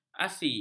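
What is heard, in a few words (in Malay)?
"asi", Malay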